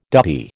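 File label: "duppy", American English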